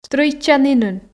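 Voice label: "стрӧитчанінын", Komi, female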